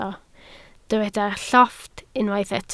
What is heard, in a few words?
"llofft", Welsh